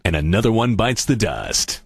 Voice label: cheer